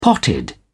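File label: "potted", British English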